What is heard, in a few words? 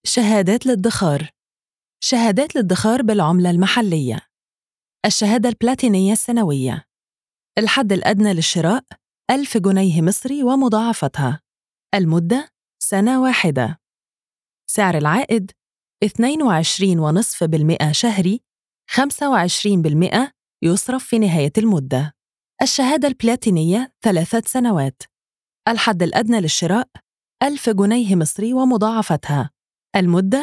EG Narration; NBE